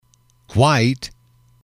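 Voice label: "white", English, male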